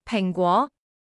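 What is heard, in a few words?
"apple", Cantonese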